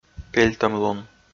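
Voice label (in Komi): "пельтӧмлун", Komi, male; audio dict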